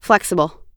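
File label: "flexible", English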